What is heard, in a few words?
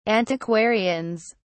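"antiquarians", British English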